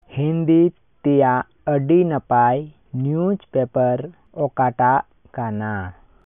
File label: Santali; Which is the best newspaper in Hindi?